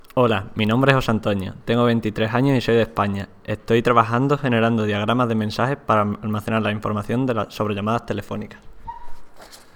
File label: SPANISH WAVE